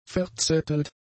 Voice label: "verzettelt", German; adjectives

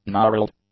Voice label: "gnarled", english; adjectives

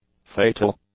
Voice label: "fatal", english; adjectives

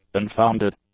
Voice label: "unfounded", english; adjectives

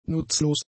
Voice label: "nutzlos", German; adjectives

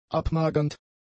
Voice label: "abmagernd", German; adjectives

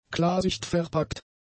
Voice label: "klarsichtverpackt", German; adjectives

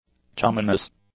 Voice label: "chumminess", English

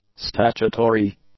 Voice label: "statutory", English; adjectives

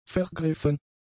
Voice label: "vergriffen", German; adjectives

